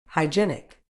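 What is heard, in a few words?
"hygienic", British English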